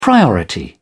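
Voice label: "priority", British English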